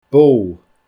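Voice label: "boo", Scottish Gaelic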